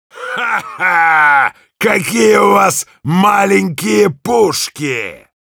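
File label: Russian; Heavy; taunts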